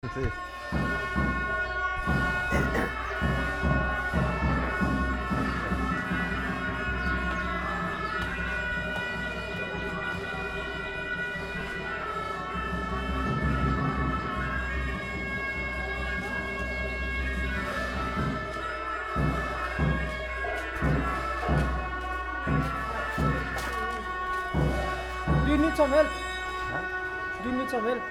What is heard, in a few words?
tibetan music house gomba talk, Kathmandu soundwalks at dawn